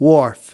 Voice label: "wharf", us